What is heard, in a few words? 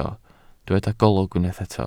"golwg", Welsh